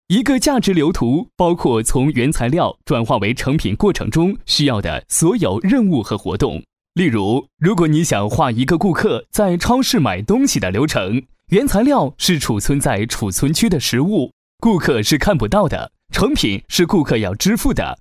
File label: locutor chino chinese mandarin voiceover